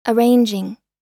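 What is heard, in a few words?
"arranging", American English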